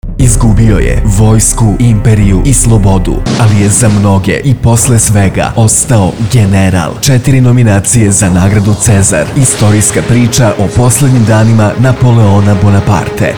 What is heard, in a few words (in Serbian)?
radio reklama; Gospodin N